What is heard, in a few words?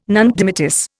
"nunc dimittis", Indian